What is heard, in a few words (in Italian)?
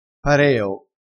"pareo", crespinese